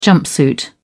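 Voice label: "jumpsuit", British English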